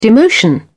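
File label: "demotion", British English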